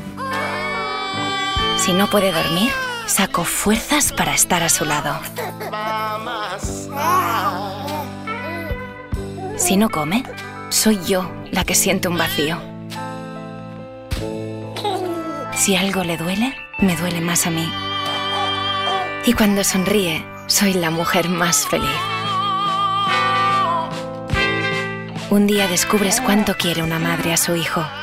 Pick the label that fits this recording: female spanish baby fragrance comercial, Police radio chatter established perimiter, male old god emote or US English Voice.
female spanish baby fragrance comercial